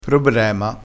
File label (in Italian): "probrema", crespinese